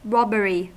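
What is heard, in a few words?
"robbery", US English; pronunciations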